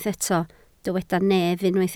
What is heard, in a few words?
"nef", Welsh